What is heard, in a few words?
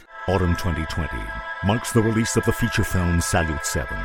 Space Promo; Salut-7